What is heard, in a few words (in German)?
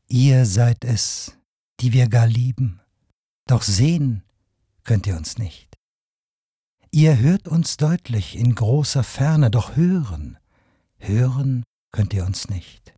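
poetisch, Männer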